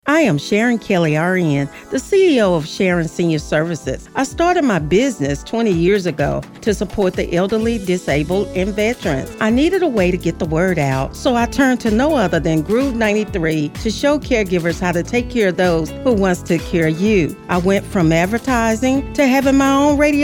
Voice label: sales testimonial